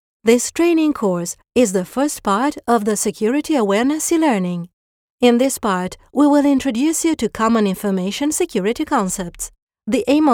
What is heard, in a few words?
ENG with accent